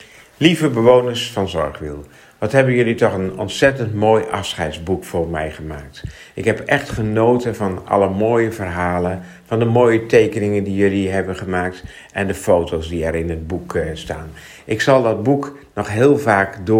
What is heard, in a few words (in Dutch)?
Spraakbericht